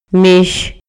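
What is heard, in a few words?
Maya, male; PALABRAS CON DISTINTAS FUNCIONES; INALI; MayaYuc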